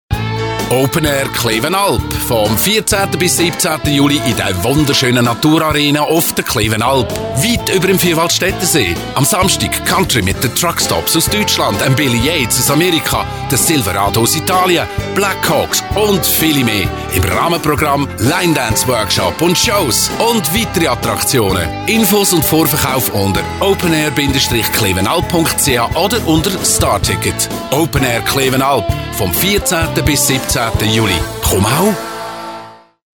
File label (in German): Werbung; Klewenalp